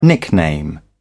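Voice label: "nickname", British English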